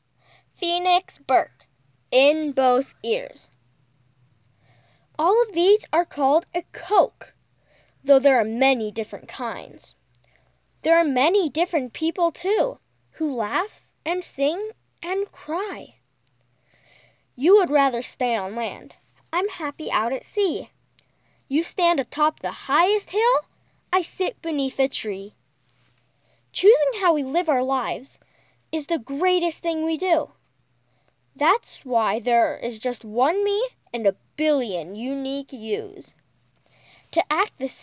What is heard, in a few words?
kids commercial; Elementary aged. Enthusiastic and curious.